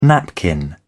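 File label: "napkin", British English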